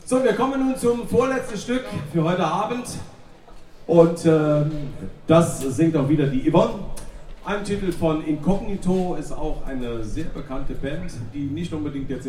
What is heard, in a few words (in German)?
Ansage; Place D'Armes, LU-Zentrum